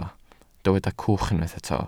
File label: "cwch", Welsh